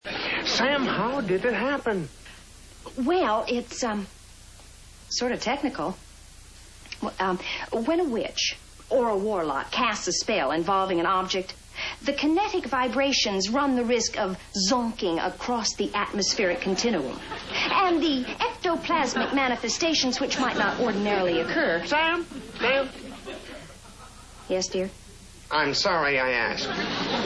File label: explaining; sam